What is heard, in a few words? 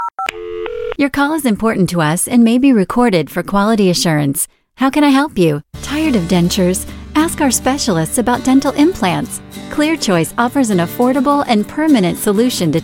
Phone IVR